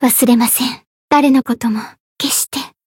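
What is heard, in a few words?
Voice idle, Japanese; tsukiha; zodiac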